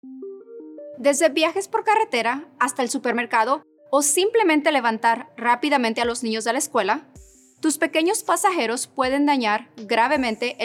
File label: Child Car Seat Protector Spanish